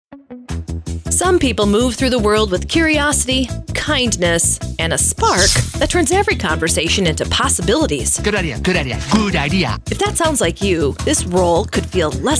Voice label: SALES PROMO Recruitment Empath Appeal; Lodge